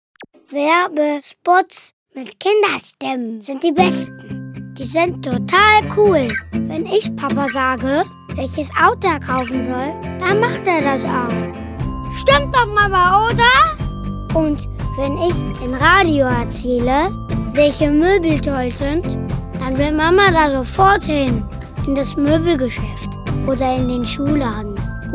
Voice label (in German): Werbung